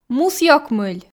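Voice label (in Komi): мусъёкмыль, Komi, female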